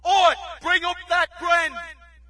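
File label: British move up mg; player; source engine; dod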